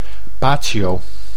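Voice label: "patio", Dutch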